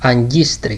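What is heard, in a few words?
"agkistri", Greek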